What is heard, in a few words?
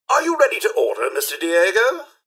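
hello, robot; rivetcity; mrhandy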